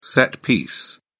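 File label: "set piece", British English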